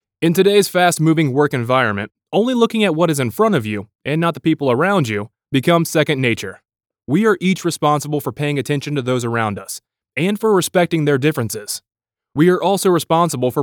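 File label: E-Learning Straight, voiceover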